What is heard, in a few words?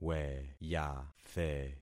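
"weyathe", concatenated syllables; Affixing experiment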